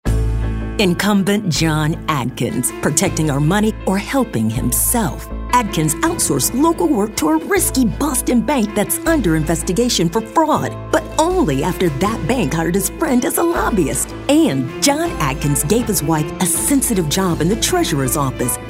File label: Political Negative-to-Positive